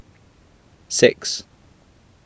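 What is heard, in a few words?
"six", English, male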